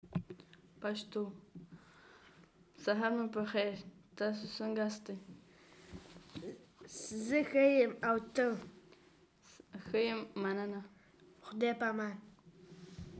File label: Pashto